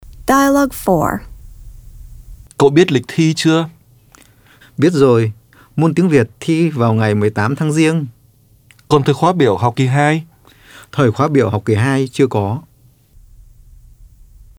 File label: Dialog